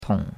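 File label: "tong3", Mandarin Chinese; Cours de Chinois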